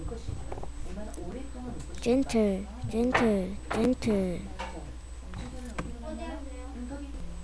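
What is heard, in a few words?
"gentle", spoken word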